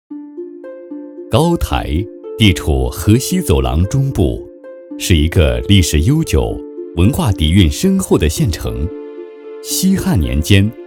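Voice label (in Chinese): 男国大音【历史韵味】民俗纪录片配音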